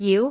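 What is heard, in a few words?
"jiu2", Cantonese; microsoftHiuGaai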